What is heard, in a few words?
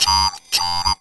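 effects talk, Funky Vocals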